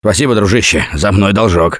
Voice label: thanks, russian; Gambler